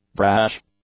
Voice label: "brash", English; adjectives